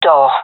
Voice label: door, gendermerie fem; player vo